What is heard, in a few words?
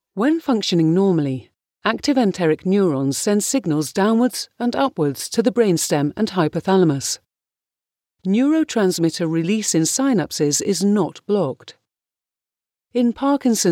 elearning